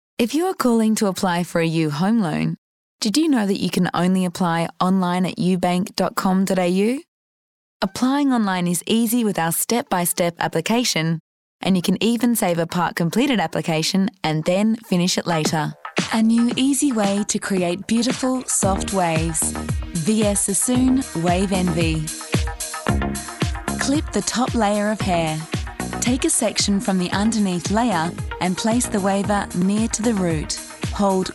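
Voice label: english Australian female narration; voice demos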